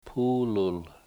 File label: "puulul", washo; car